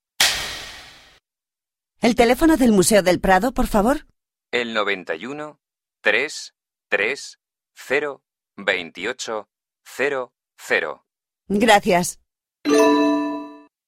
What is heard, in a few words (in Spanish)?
Telefono; Prado; Spanish